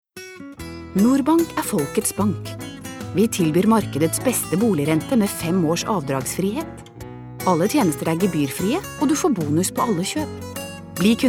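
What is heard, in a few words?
Norwegian commercial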